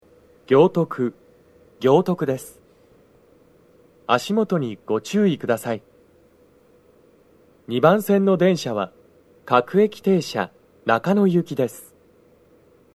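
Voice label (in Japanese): 到着放送, 男声, 放送は「各駅停車 中野行」です。Panasonicクリアホーンでの収録です。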